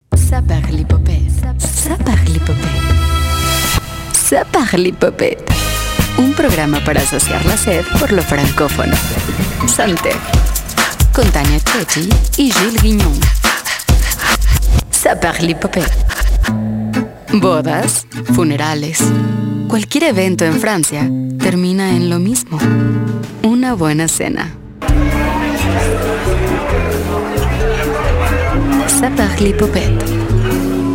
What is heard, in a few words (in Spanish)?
locutora